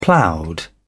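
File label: "ploughed", British English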